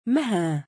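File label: "mahaa" female name, Arabic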